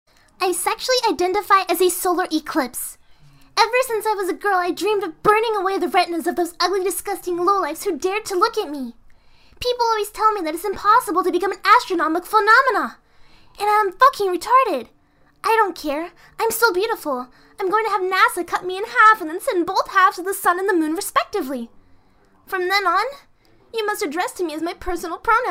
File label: Female - English - American